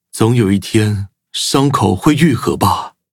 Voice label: levelup zh-hant; Cookie Run